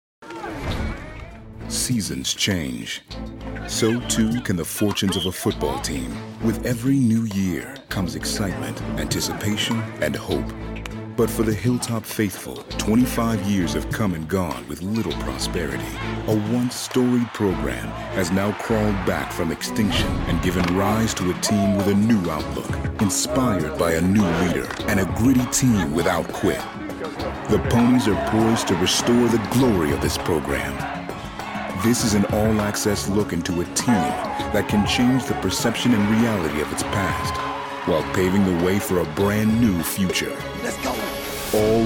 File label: Narration